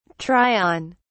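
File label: "try on", British English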